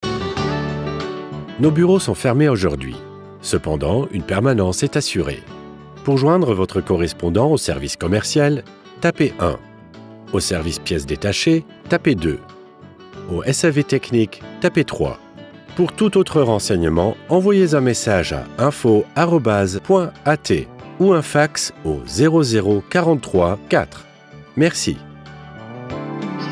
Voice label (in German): Sprecher f; Hofstetter-Team; Telefonansage